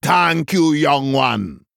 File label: Male Troll Thanks